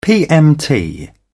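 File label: "pmt", British English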